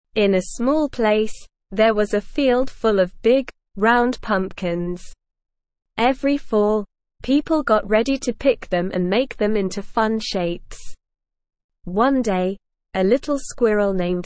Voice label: ESL Short Stories for Kids Beginner SLOW Reading; The Party in the Pumpkin Patch